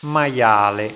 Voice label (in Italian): "maiale", italiaans